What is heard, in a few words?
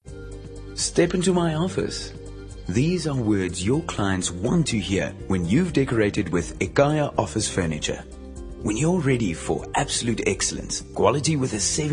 English Soft Sell